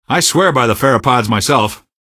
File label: pheropod nag, male; Garry's Mod; hl2; bugbait